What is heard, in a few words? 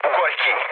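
Hungarian bailout mood low, speech; War Thunder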